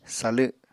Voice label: "salw", Apatani